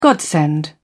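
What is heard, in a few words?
"godsend", British English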